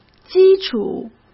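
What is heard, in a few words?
"基础", Mandarin Chinese; chinese flashcards; hsk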